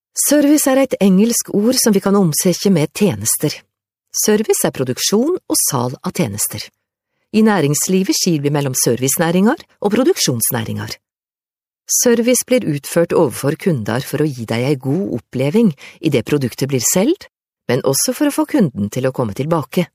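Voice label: norwegian female narration, voice demos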